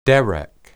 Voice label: "derek", Hebrew

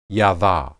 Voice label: "yadah", Hebrew

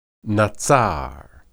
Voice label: "natsar", Hebrew

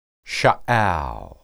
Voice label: "shaal", Hebrew

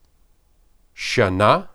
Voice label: "shanah", Hebrew

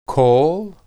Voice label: "kol", Hebrew

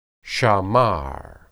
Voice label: "shamar", Hebrew

